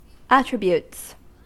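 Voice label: "attributes", American English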